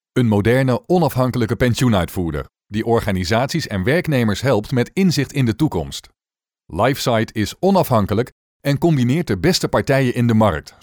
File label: dutch voice corporate